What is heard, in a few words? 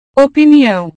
"opiniao", portugues